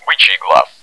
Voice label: good shot, bot; cstrike